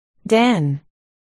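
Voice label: "den", spoken word, articulation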